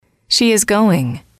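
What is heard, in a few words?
"she is going", English, female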